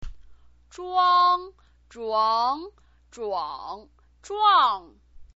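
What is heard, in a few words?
"zhuang", Mandarin Chinese pinyin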